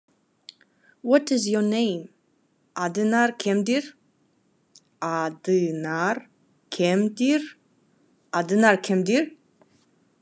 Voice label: What is your name, Khakas